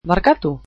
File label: "Sorry", basque